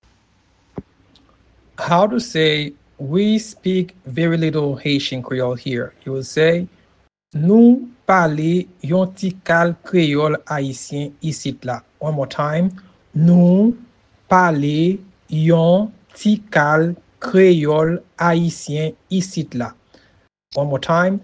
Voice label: We speak very little Haitian Creole here in Haitian Creole Nou pale yon tikal kreyol ayisy